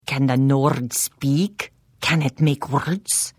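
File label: Hello, Khajiit female; The Elder Scrolls III - Morrowind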